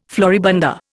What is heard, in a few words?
"floribunda", Indian